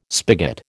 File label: "spigot", American